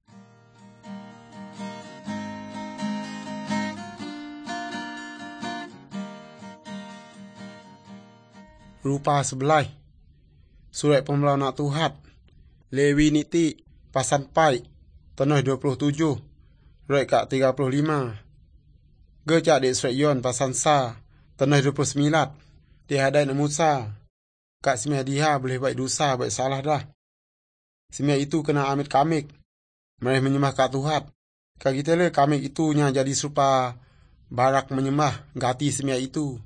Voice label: good news, urak lawoi; malay